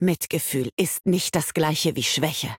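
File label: move 2d standard, German; mel; tftset16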